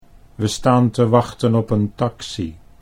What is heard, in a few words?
"we staan te wachten op een taxi", Dutch